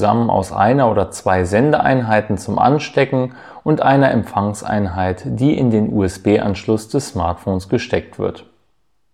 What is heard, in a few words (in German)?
Testaufnahme ohne Noise Cancelling; hollyland lark c1 test